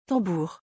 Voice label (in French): "tambour", French; vocabulary; musical instruments